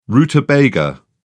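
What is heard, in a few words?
"rutabaga", British English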